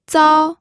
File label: "zao1", pinyin